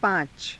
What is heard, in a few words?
"paa~ca", Hindi